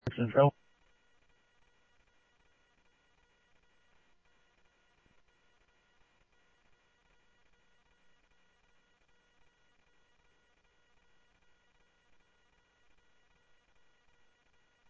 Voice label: Sheriff-EastCnty, scanner audio; MCSO - EAST; ThompsonPeakScot